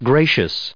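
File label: "gracious", American English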